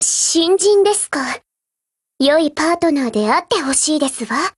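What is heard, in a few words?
BUILD OVER Japanese, Voice; GF Alarm; Desert Eagle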